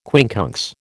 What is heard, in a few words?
"quincunx", American